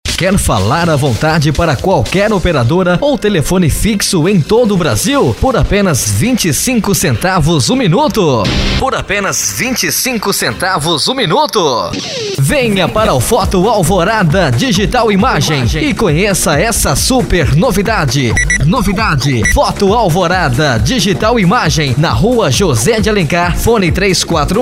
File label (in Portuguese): Vinheta